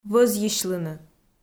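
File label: "вӧзйысьлыны", Komi, female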